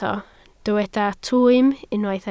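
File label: "twym" Welsh, spoken word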